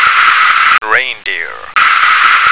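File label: "reindeer", American English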